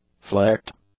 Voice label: "flecked", english

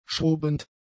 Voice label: "schrubbend", German; adjectives

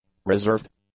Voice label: "reserved", English; adjectives

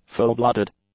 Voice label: "fullblooded", English; adjectives

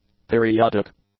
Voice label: "periodic", english; adjectives

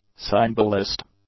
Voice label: "cymbalist", English; nouns

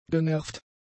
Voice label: "genervt", German; adjectives

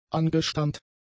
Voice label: "angestammt", German; adjectives

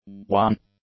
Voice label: "wan", English; adjectives

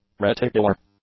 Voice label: "reticular", English; adjectives

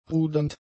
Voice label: "rudernd", German; adjectives

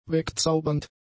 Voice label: "wegzaubernd", German; adjectives

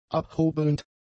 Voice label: "abhobelnd", German; adjectives

